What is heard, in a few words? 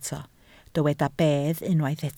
"bedd", Welsh